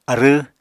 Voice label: "arww", Apatani

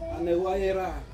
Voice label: "anewayera", Ansus; to think

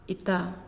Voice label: "Smoke", Naasioi

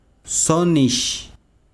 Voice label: besiro cancion, chiquitano

